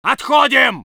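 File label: voice soviet infantry; Red Orchestra 41-45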